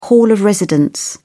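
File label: "hall of residence", British English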